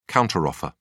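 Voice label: "counter offer", British English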